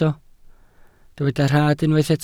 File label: "rhad", Welsh